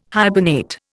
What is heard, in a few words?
"hibernate", Indian